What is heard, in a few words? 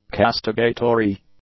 "castigatory", English; adjectives